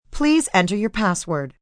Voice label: Enter Password, Voicemail, telephone messages, female